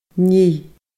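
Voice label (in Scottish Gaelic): "nigh", Scottish Gaelic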